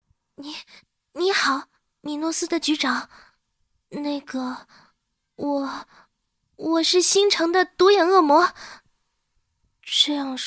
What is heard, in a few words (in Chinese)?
少女音翻配